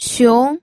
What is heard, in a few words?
"xiong2", pinyin